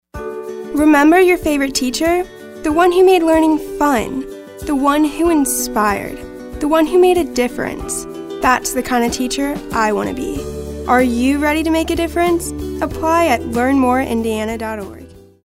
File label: nostalgic